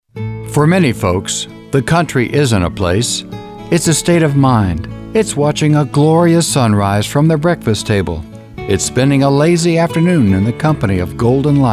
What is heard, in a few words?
Commercial